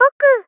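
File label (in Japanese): Moe Boku, moe loli girl; Rei Yumesaki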